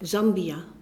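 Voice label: "zambia", Dutch; gcompris-data; geography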